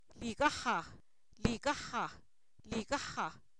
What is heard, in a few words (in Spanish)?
gratuito, Zapoteco, san miguel albarradas; sustantivos